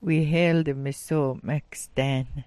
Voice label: "'wiiheelt", smalgyax

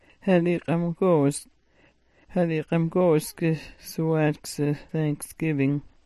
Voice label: "ha'lik'amgoosk", smalgyax

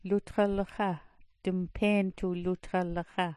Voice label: "lutxallaxha", smalgyax

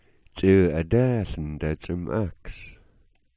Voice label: "adaaln", smalgyax

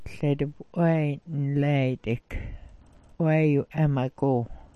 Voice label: "'waa", Smalgyax

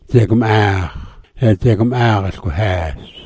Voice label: "dzagm'aax", smalgyax

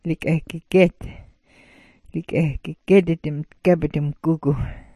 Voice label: "likagyigyet", smalgyax

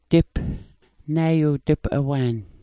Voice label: "dip", smalgyax

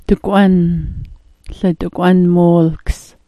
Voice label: "dakwan", smalgyax

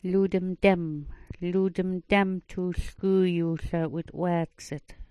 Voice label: "ludmdam", smalgyax

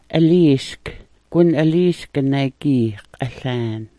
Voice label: "aliisk", smalgyax

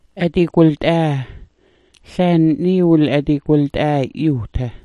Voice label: "adigwilt'aa", smalgyax

